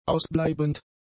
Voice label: "ausbleibend", German; adjectives